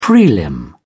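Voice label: "prelim", British English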